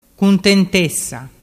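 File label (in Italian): "cuntentessa", Vernazzese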